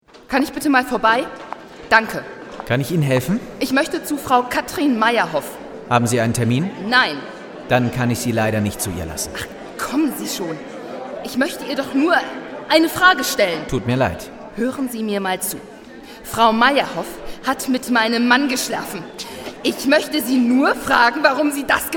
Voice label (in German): Hoerspiel